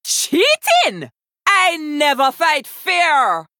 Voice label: Female Troll Play